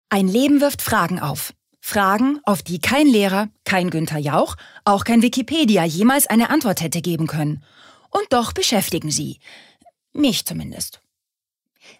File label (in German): Schauspiel, Dynamisch